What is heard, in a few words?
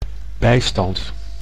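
"bijstand", Dutch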